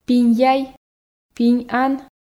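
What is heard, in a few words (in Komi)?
пиньяй пинь ан, Komi, female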